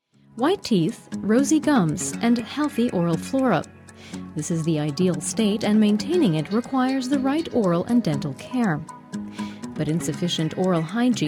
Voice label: US elearning; sprecher; englisch